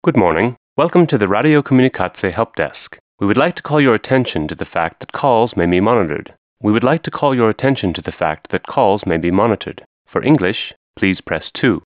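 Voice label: Male English IVR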